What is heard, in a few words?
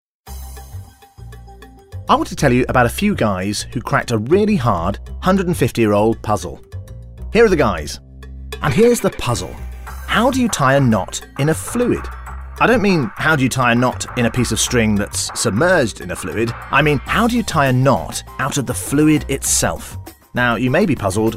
Narration Chatty